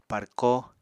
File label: "párkó", Apatani